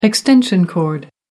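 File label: "extension cord", British English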